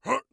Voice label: hut, orc voice; Orc Voice Pack

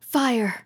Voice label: "fire", RPG Voice; RPG Voice Starter Pack

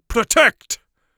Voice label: protect, Mage Voice; Mage Voice Pack

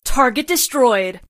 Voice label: war target destroyed, female voice over; kenney voiceoverpack

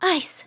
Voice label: ice, RPG Voice; RPG Voice Starter Pack

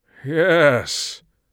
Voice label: yes, mage voice; Mage Voice Pack

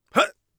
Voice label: hut, Warrior Voice; Warrior Voice Pack

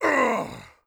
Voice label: crit, orc voice; Orc Voice Pack

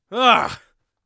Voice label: irritated, Warrior Voice; Warrior Voice Pack

